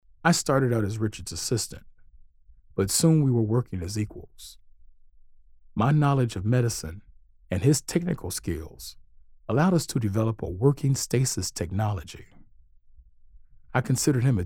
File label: VIDEOGAME CHARACTER; DR WALTER